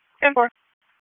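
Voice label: Dispatch 1, P25; WSO DISP 3; wake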